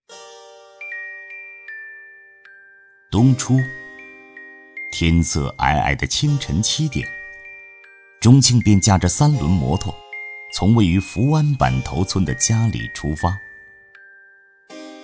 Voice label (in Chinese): 专题 人物, 男国